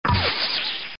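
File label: "U Have Mail", female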